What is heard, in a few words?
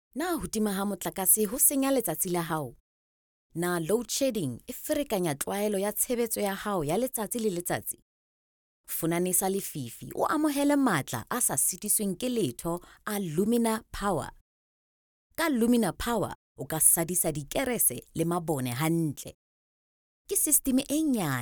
female sesotho voice over sales